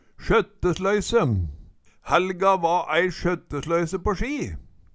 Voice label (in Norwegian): "sjøttesløyse", Numedalsmål; Helga va ei sjøttesløyse på ski